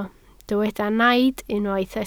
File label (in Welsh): "naid", Welsh